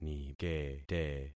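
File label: "nikete", concatenated syllables; Affixing experiment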